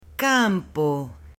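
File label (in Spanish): "campo" Spanish, female; LUGARES; AppLenguaje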